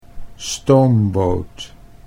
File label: "stoomboot", Dutch